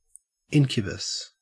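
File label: "incubus", Australian English; pronunciations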